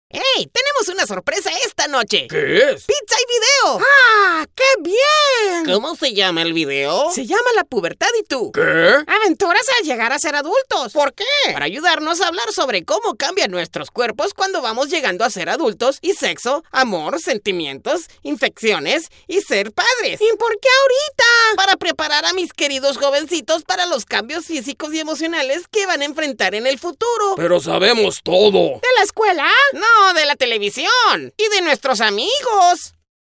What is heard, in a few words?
Cartoon Characters, Spanish voice-over